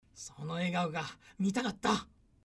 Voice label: パワフル男性; 男性 「その笑顔が見たかった」; ボイス

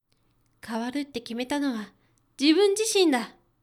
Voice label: パワフル女性; 女性 「変わるって決めたのは、自分自身だ。」

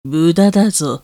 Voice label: josei mudadazo; 女性 「無駄だぞ」; 低音; ボイス

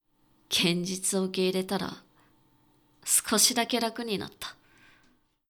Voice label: dansei genzituwoukeiretarasukoshidakerakuninatta, クール; 男性 「現実を受け入れたら、少しだけ楽になった。」; ボイス

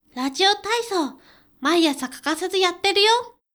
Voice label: dansei raziotaisoumaiasakakasazuyatteruyo; 男性 「ラジオ体操、毎朝欠かさずやってるよ！」; パワフル; ボイス

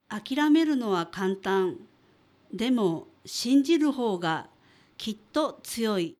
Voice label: 女性; 女性 「諦めるのは簡単。でも信じる方が、きっと強い。」; ボイス

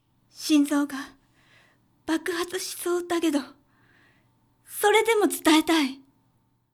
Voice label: josei shinzougabakuhatushisoudakedosoredemotutaetai; 女性 「心臓が爆発しそうだけど、それでも伝えたい。」; パワフル女性; ボイス

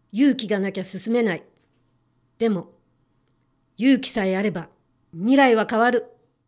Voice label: パワフル男性; 男性 「勇気がなきゃ進めない。でも、勇気さえあれば未来は変わる。」; ボイス

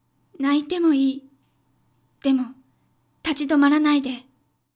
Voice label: josei, 女性, クール女性; 女性 「泣いてもいい。でも、立ち止まらないで。」; ボイス

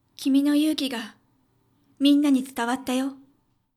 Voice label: 女性, クール女性; 女性 「君の勇気が、みんなに伝わったよ。」; ボイス

